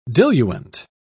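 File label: "diluent", British English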